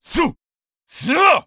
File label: VO light slash